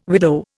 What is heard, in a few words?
"widow", Indian